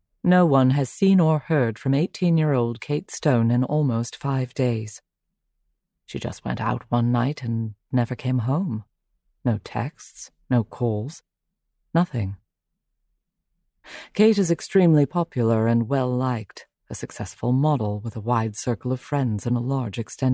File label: British